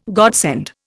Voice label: "godsend", Indian

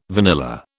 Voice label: "vanilla", British

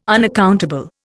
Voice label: "unaccountable", Indian

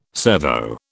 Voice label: "servo", British

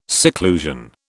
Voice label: "seclusion", British

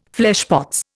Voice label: "fleshpots", Indian

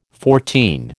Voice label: "fourteen", American

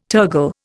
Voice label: "tergal", Indian

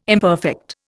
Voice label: "imperfect", Indian

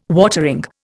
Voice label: "watering", Indian

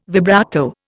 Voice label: "vibrato", Indian

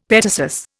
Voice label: "petasus", Indian; Dictionary

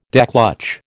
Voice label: "declutch", American

